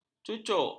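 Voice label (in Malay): "cucuk", Malay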